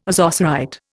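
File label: "ozocerite", Indian English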